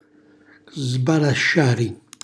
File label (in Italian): "sbalasciari", Calabrese; cucina calabrese